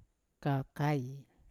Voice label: "kakai", Yawa; to die